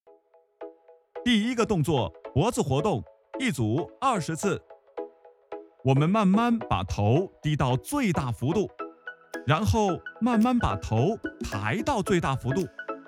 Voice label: 课件

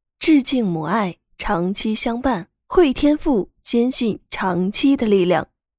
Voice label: 大气广告

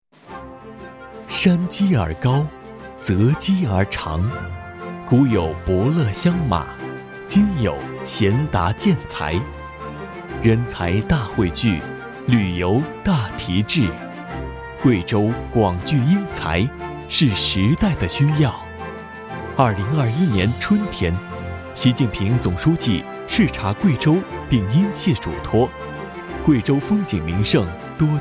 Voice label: 情感饱满宣传

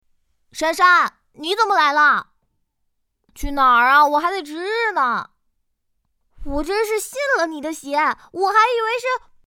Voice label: 正太少年

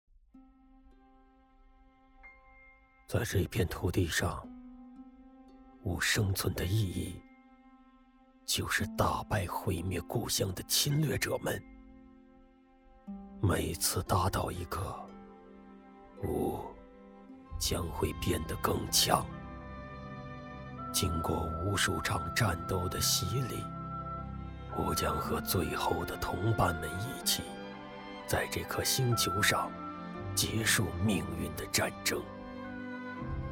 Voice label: 宣推广告年长深沉主角故事

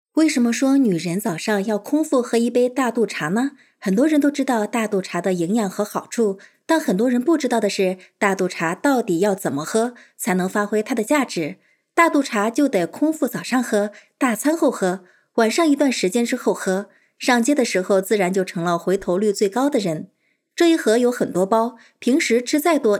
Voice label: 种草试音市场版

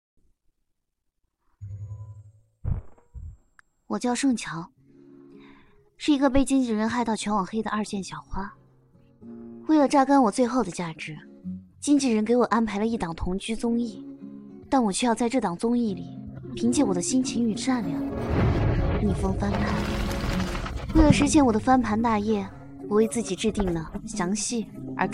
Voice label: 小说 配音; 老婆粉了解一下; 盛乔

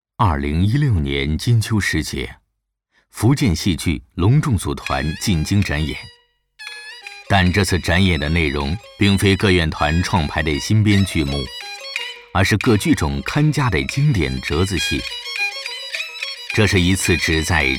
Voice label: 纪录片戏剧; 名剧名篇

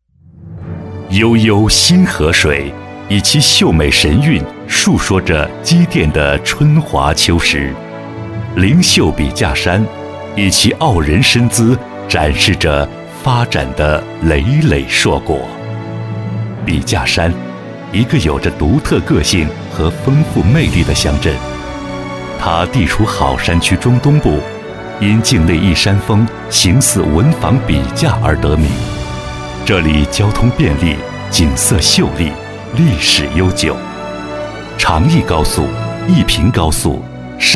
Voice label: 大气抒情; 笔架山

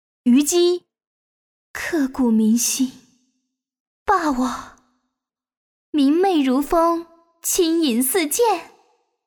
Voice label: 模仿; 王者虞姬

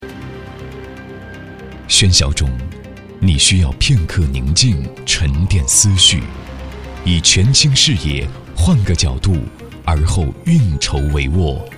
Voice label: 配音; 奥迪合成版

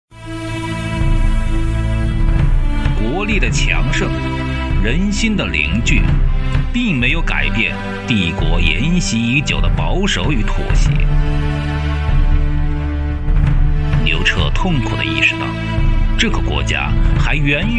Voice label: 纪录片; 汉武大帝刘彻与张骞

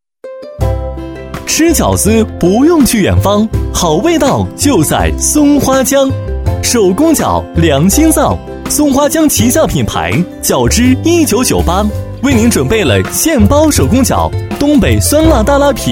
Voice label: 手工饺广告

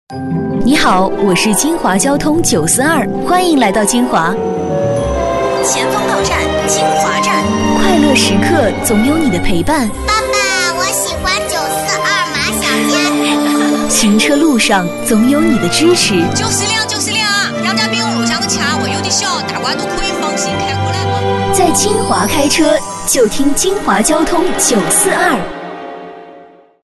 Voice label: 台宣轻松版

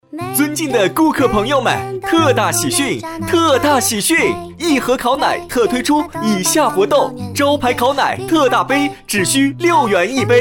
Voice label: 时尚广告

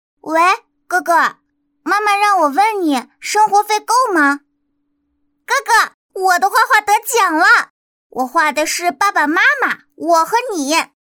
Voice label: 动画游戏角色女童

